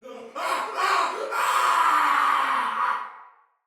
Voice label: Death Fire Soldier Male, Battle; Barks; Dialog; Sinecure Soldier VIP Male A